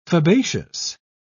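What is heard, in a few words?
"fabaceous", British English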